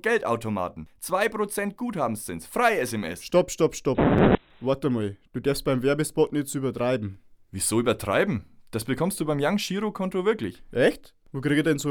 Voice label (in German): Werbung Sparkasse